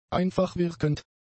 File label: "einfachwirkend", German; adjectives